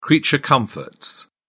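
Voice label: "creature comforts", English, British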